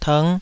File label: "tng5", Taiwanese